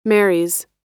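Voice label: "marries", American English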